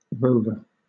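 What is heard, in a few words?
"rover", British English; pronunciations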